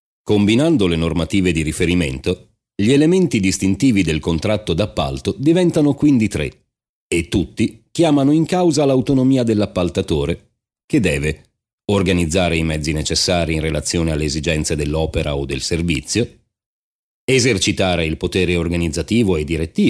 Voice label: e-learning